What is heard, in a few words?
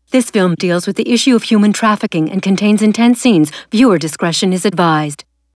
Imaging